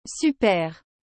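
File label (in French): "super", French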